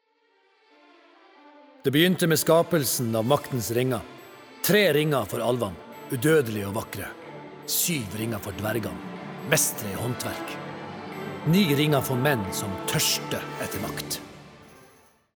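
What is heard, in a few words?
Norwegian movie trailer nordnorsk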